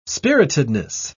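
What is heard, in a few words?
"spiritedness", British English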